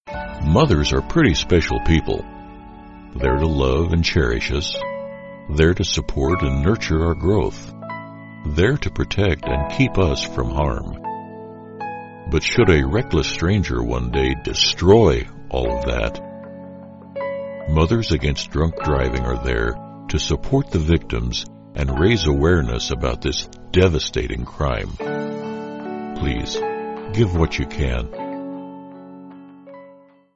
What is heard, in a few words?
psa; MADD